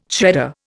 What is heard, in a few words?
"shredder", Indian